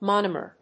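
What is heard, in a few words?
"monomer", English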